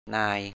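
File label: "na:y" Thai, 'Mr., master, boss'; นาย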